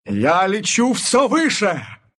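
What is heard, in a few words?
levelup, Russian; dota2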